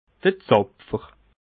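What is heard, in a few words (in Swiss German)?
"zapfer", Bas Rhin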